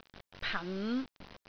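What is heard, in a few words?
"pan5", Cantonese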